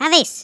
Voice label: "FIREBALL", Speech; Tykes